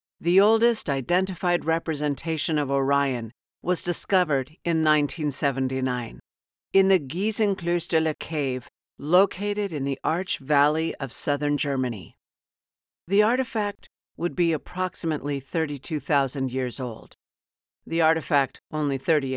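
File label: Archeological narrative, voiceover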